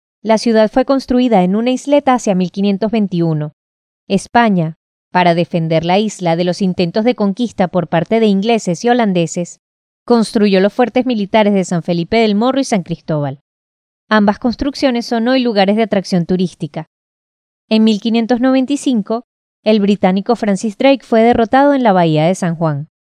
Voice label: locutora venezuela venezuelan voiceover; locutora de Venezuela